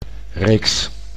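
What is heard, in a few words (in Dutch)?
"reeks", Dutch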